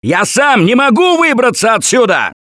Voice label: call for rescue, russian; Gambler

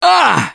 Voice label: Hurt Major, russian; Gambler

